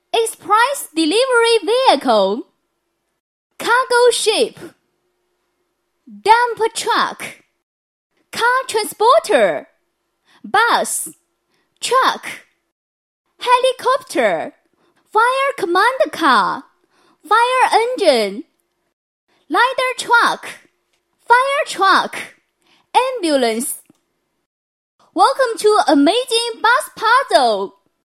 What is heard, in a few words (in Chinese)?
英女 英语 男英童